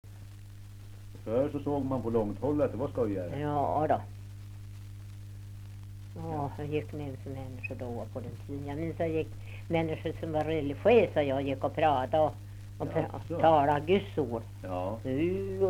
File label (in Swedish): dialekter; Folkminnen; Svenska sagor